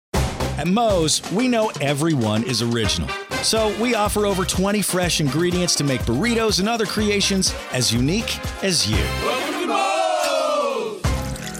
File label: Commercial